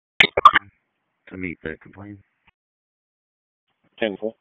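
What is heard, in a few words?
Dispatch, p25; WSO DISP 1; wake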